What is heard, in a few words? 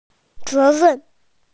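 "responsibility", Mandarin, hearing-impaired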